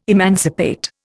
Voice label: "emancipate", Indian

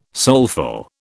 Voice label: "soulful", British

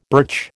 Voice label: "birch", American

